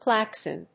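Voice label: "Klaxon", English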